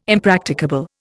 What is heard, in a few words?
"impracticable", Indian